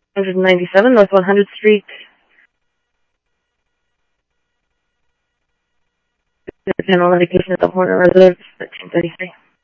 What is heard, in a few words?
Police, scanner; H-Scottsdale; ScottsdalePolice